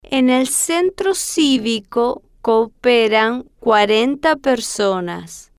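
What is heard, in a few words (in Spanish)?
en El Centro Civico Coperan Cuarenta Personas, Spanish, female, slow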